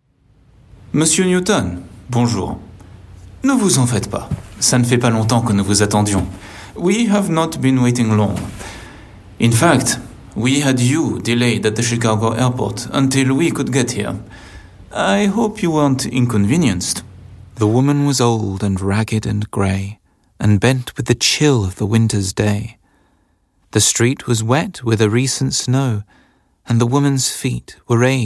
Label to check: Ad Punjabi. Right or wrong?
Wrong